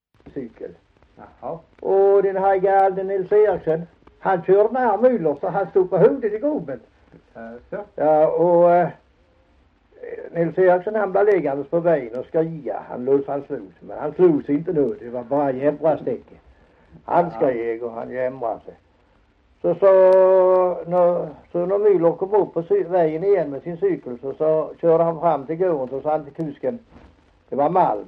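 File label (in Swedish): Hasslöv Halland, dialekter svenska sagor; Folkminnen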